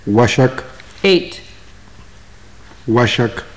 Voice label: numbers, maya